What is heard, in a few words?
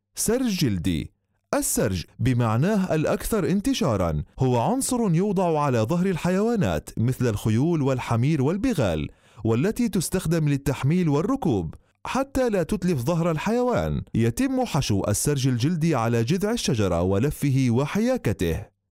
arabic